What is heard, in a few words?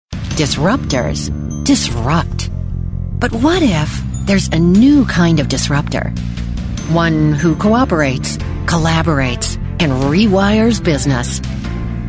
Narration